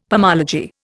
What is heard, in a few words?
"pomology", Indian; Dictionary